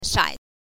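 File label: "shai", Mandarin Chinese, neutral tone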